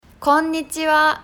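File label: Konnichiwa, Japanese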